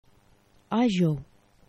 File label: "ajxo", esperanto; geneva